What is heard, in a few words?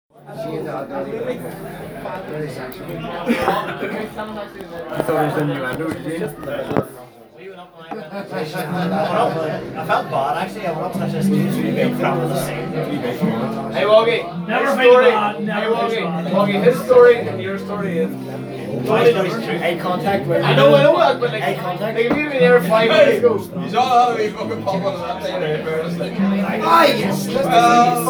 guys talking in pub